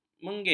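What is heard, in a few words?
"mengih", Malay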